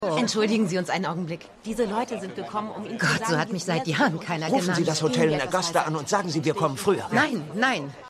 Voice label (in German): Assistentin; Woodhouse Assistentin; Madam Secretary